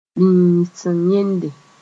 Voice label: "bĩisim yẽnde", Mooré